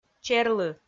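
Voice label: "черлы", Komi, female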